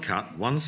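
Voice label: cuQ once, Standard Southern British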